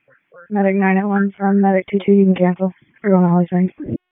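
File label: Public Safety Tactical, p25; wake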